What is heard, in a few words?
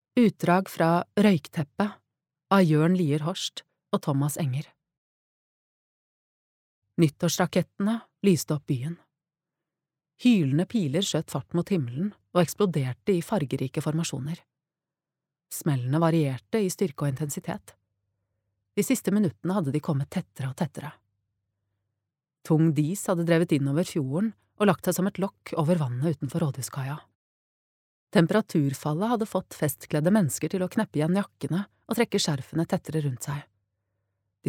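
audiobook, norwegian, female; lydbok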